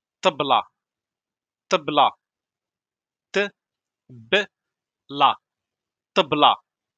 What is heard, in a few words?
table in moroccan arabic darija vocabulary